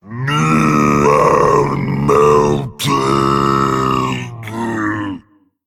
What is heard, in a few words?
Male Dragon Evil Fight Hero Death